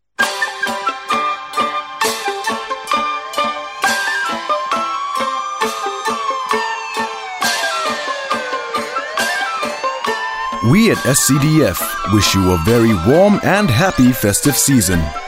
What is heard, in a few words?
Asian English; SCDF